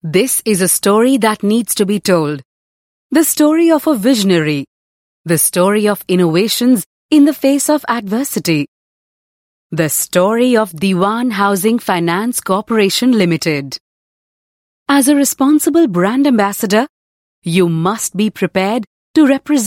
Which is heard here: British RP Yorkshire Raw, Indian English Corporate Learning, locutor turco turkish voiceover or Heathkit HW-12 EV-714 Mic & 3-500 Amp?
Indian English Corporate Learning